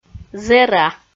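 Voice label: "зэра", Komi-Zyrian, female; audio dict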